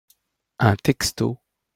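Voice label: pronunciation "un texto", French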